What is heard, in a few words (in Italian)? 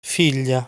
"Figlia", Italian